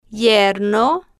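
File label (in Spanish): "yerno", female, slow, Spanish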